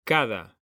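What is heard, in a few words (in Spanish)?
"CADA" Spanish, male; mekanta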